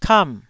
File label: "kam4", taiwanese syllable